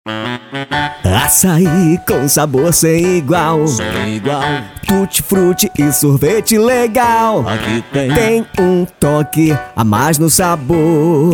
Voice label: jingle, açai e sorvetes; tutti fruti